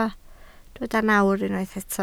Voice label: "nawr", Welsh